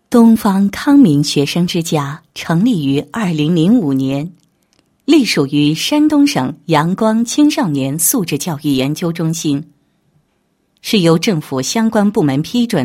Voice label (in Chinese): 女 宣传广告 学生公寓宣传介绍